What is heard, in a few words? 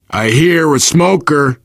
heard smoker, player survivor voice biker; source engine; left 4 dead 2